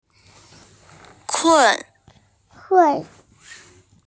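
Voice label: "kun4", Mandarin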